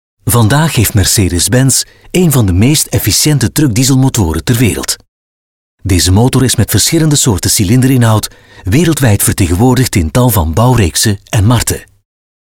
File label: Corporate; Mercedes Benz